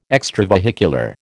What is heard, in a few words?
"extravehicular", American